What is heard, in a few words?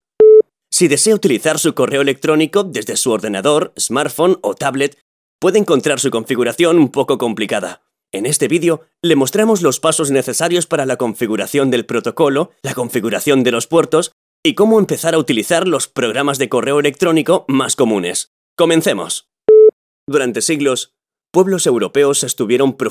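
Spanish European General; GoLocalise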